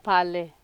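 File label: "pale", Nigerian Pidgin, female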